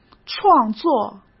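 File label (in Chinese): "创作", Mandarin Chinese; hsk; chinese-flashcards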